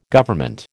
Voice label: "Government", American